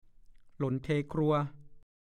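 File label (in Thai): "หล่นเทครัว" ภาษาโคราช; ผลไม้หล่นมากเพราะจวนจะวาย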